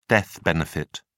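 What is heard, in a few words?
"death benefit", British English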